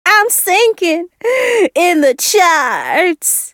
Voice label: Dizzy low; awesomenauts